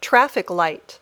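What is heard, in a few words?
"traffic light", English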